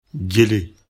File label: "jele", lecture bm